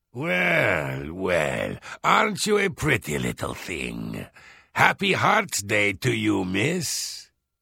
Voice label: Hello Argonian Male; Unused Holiday

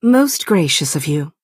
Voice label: Altmer female thank you

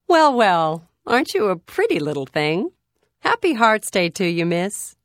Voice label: Holiday Hello Nord Female; Unused

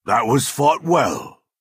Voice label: Redguard male good game

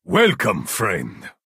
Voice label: Nord male "hello"

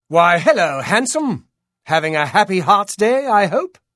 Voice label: Holiday Hello High Elf Male; Unused

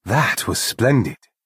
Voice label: Altmer male nice

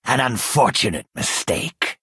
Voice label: Argonian male oops

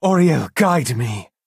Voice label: Altmer male implore

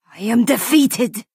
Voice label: Nord female concede

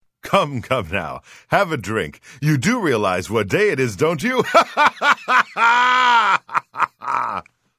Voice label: Unused Holiday Greeting Redguard Male

